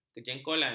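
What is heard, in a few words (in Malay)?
"kejengkolan", Malay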